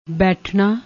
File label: "baithnna", Punjabi